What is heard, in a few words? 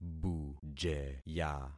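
"bujeya", concatenated syllables; Affixing experiment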